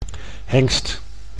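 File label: "hengst", Dutch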